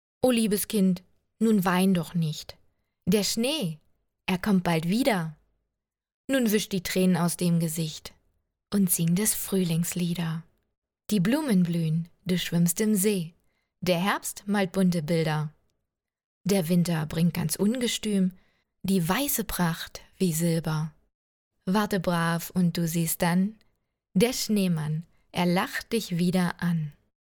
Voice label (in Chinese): 诗歌